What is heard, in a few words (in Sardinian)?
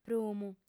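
"prumu", female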